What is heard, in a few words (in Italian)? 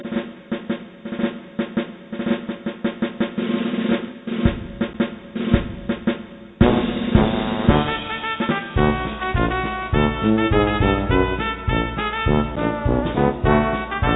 dialetto